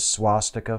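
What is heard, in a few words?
"swastika", American English; pronunciations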